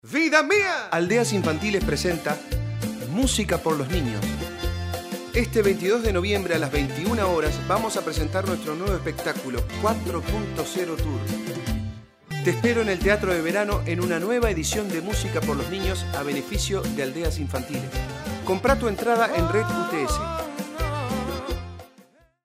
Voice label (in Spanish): SPOT RADIO